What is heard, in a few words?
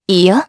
Vox Deny jp; Lewsia; kings raid